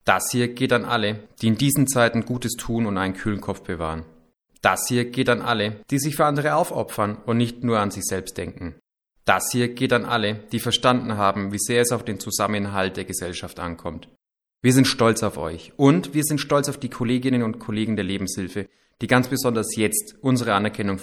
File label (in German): radiospot; corona